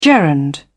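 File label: "gerund", British English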